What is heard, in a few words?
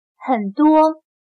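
"很多", Chinese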